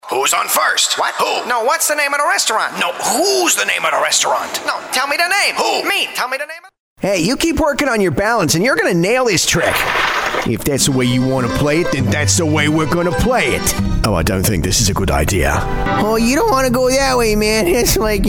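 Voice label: Canadian, male; voice over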